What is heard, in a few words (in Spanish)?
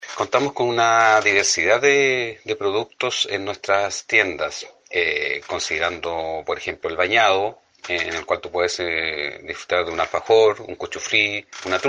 Cuña